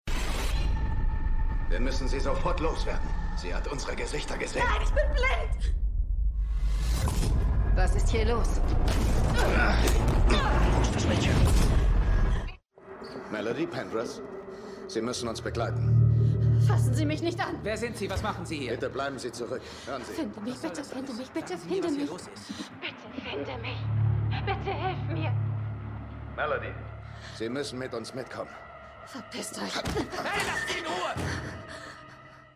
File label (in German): Synchron